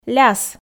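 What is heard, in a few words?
"ляс", Komi, female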